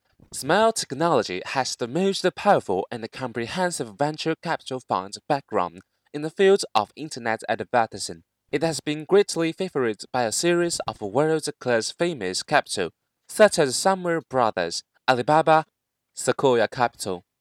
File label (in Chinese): 英文解说